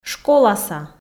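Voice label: "школаса", Komi, female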